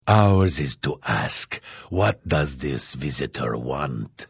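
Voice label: Hello, Vo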